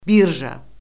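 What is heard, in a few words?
"birja", Azerbaijani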